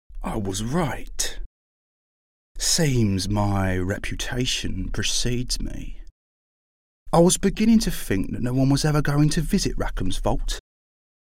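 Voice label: English UK, character; GoLocalise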